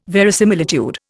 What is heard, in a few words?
"verisimilitude", Indian